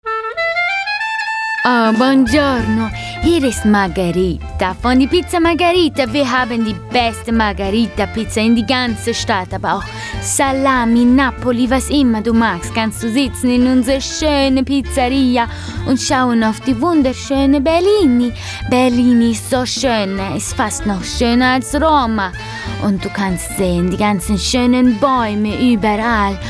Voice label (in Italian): italiano